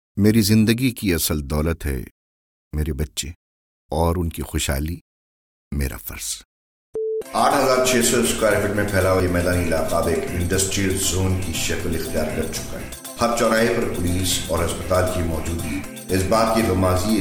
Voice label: Urdu